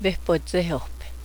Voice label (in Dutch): "véhpotséhohpe", Cheyenne; thee-in-andere-talen